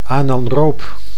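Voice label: "anaroob", Dutch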